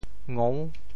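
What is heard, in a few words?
"ngou6", Teochew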